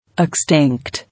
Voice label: "Extinct", English

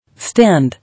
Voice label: "Stand", English

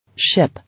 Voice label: "Ship", English

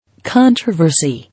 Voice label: "Controversy", English

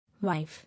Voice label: "Wife", English

